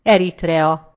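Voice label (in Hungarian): "eritrea", Hungarian; gcompris; geography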